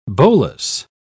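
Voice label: "bolus", British English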